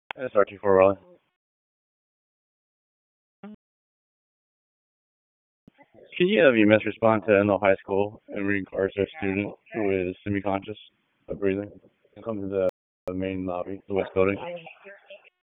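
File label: Police Dispatch, p25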